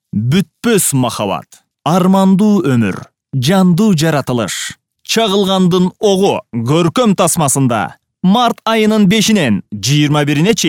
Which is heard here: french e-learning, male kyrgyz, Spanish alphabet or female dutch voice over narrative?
male kyrgyz